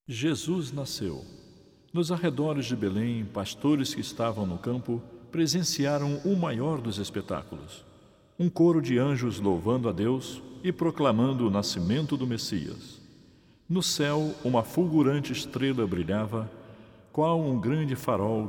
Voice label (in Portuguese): Narração